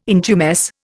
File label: "intumesce", Indian